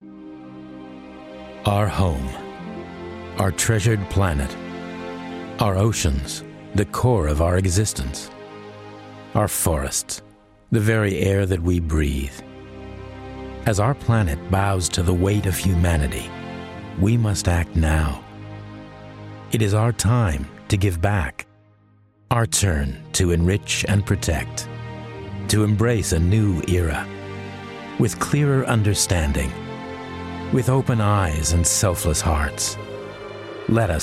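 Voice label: American English, Commercial; GoLocalise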